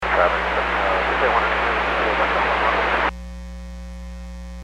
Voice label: Aviation 122.7; KSTE